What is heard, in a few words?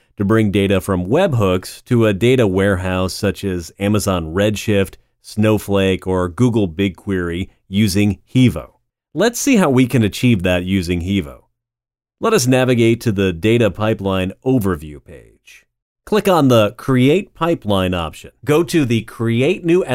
instructional explainer